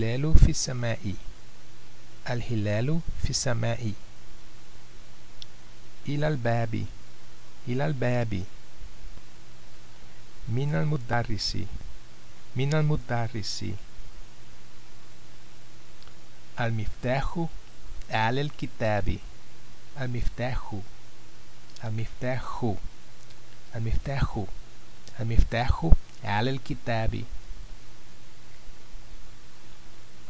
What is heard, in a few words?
arabic recording